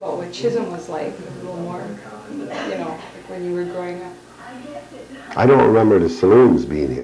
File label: Personal history with male, Chisholm Minnesota, american english dialect recordings